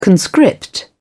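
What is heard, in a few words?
"conscript", British English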